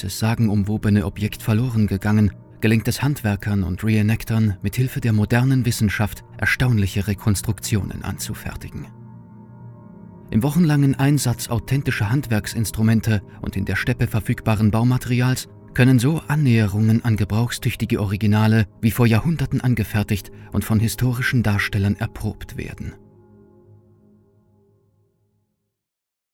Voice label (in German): Doku Reiterbogen